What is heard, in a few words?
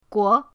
"guo5", pinyin